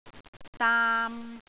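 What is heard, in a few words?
"daam3", Cantonese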